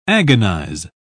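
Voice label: "agonise", British English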